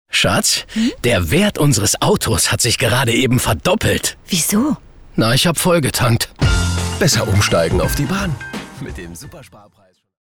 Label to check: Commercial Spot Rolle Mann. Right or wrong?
Right